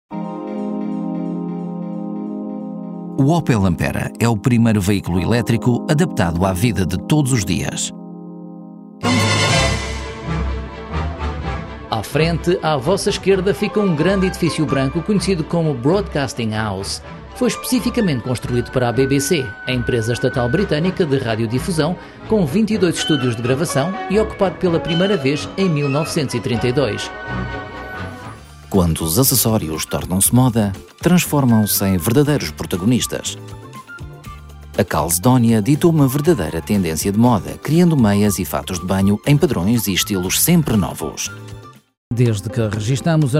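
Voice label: Portuguese Corporate, male voice over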